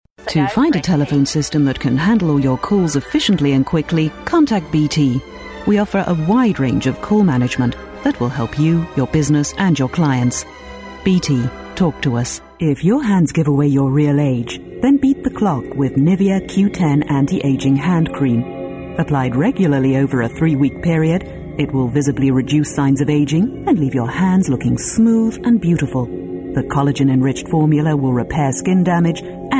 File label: English UK